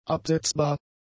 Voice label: "absetzbar", German; adjectives

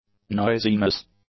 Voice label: "noisiness", English; nouns

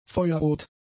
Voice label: "feuerrot", German; adjectives

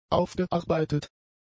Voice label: "aufgearbeitet", German; adjectives

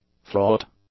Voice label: "fraught", English; adjectives

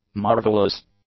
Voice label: "marvelous", English; adjectives

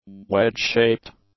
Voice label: "wedge-shaped", English; adjectives

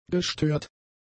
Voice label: "gestört", German; adjectives

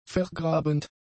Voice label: "vergrabend", German; adjectives

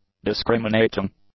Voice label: "discriminating", English; adjectives

